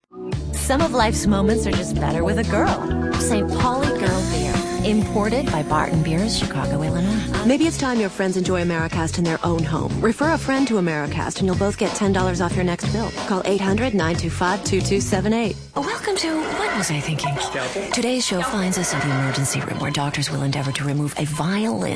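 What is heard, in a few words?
female, late 20s mid 30s, contemporary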